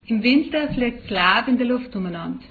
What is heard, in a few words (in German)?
mittelbairisch